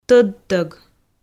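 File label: "тӧдтӧг", Komi, female; audio dict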